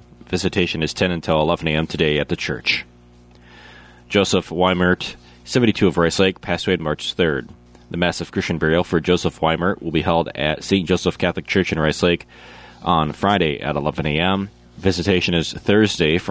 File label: Funeral Announcements